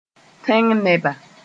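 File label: "tẽng neba", Mooré; people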